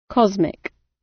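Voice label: "cosmic", British; Space, the planets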